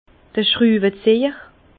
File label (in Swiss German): "schtruwezejer", Bas Rhin; vin; tournevis